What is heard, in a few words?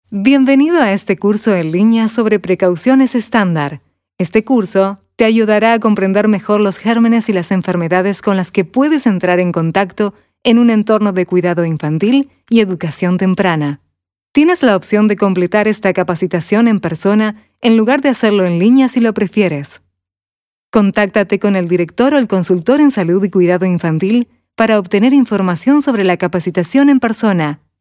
low neutral spanish